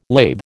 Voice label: "lathe", American